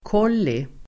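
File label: "kolli", Swedish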